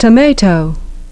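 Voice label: "tomato", English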